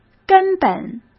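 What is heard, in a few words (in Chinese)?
"根本", Mandarin Chinese; chinese flashcards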